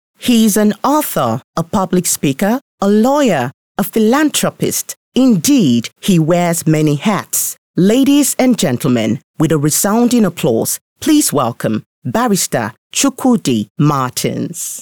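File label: Yoruba, female, English, Nigeria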